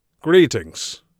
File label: hello, Mage Voice; Mage Voice Pack